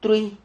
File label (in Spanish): "trui", Silabas